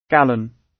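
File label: "gallon", British English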